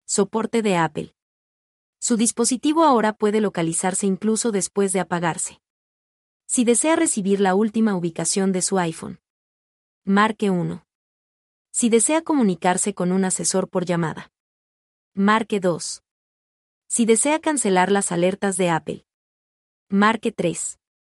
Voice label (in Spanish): SOPORTE, es man